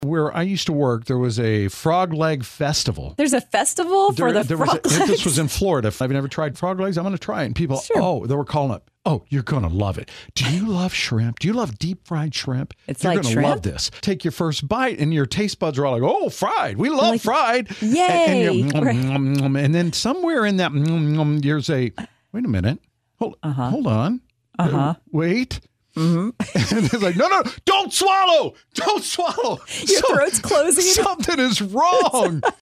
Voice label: Promo